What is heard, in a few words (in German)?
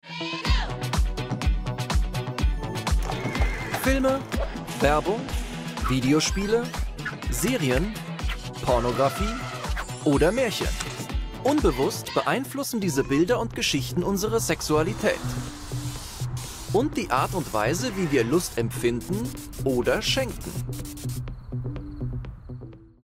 Doku de Kommentar Intro; ARTE; Knick Knack